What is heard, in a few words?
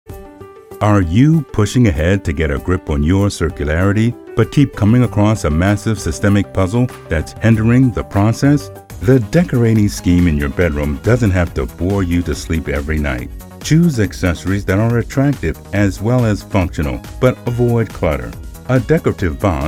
Explainer video, Narration